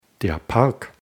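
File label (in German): "Park", German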